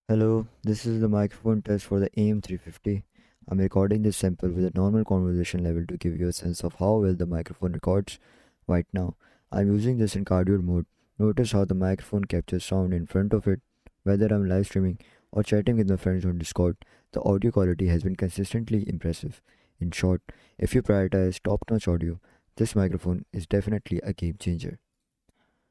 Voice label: AVerMedia Live Streamer MIC 350 Recording Sample